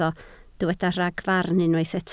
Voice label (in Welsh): "ragfarn", Welsh